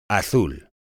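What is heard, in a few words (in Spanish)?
"azul", Spanish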